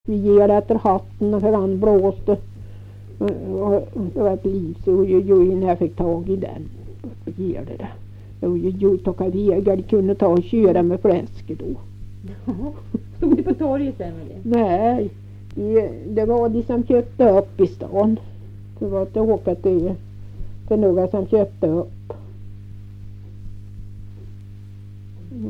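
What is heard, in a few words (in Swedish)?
Kvistbro Närke, dialekter; Folkminnen; Svenska sagor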